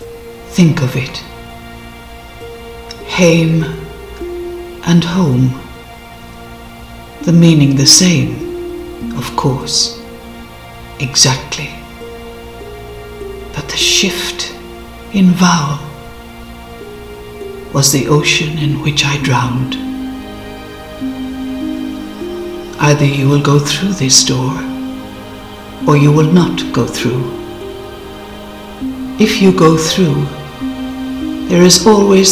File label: narration documentary; grandpa speak to me in russian